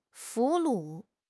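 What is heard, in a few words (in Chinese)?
"俘虏", Chinese; HSK6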